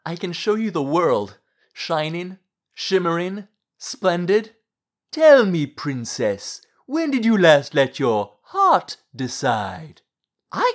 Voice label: animation vo; Voice Over